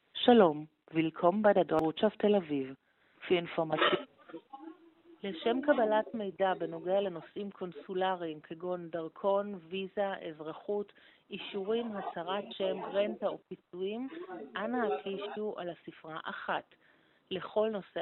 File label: call recording; GERMANY EMBASSY